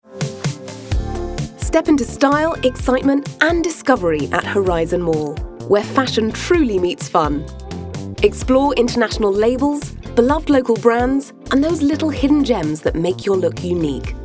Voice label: UK English Retail; Horizon Mall